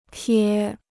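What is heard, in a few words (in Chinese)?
"撇" Chinese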